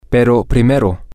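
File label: but first, Spanish, male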